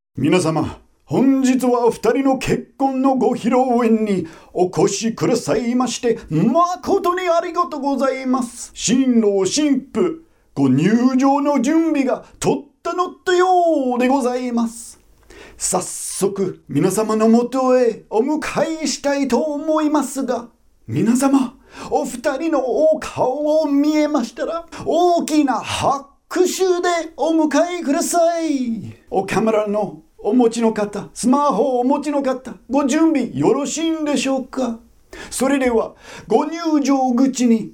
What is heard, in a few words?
wedding mc example medium animated